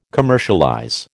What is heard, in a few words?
"commercialize", American